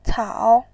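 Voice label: "cao", Mandarin Chinese, third tone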